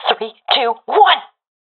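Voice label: countdown, professional female; vo; Counter Strike Model Pack; CS:GO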